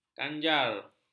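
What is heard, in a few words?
"kanjar", Malay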